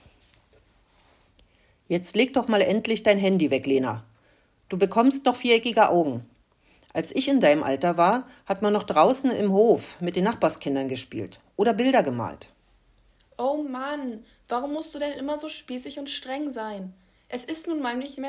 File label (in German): Dialog Handynutzung